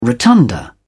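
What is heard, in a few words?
"rotunda", British English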